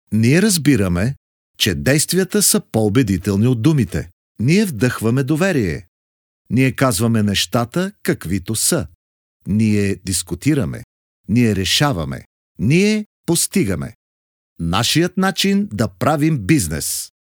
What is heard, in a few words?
bulgarian male